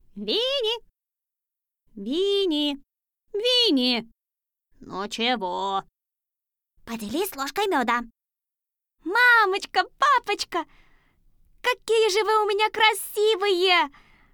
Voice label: female russian english with russian accent and russian character